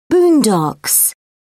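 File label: "boondocks", British English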